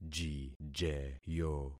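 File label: "jijeyo", concatenated syllables; Affixing experiment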